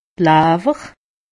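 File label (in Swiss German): "lawwer", Alsatian; medecine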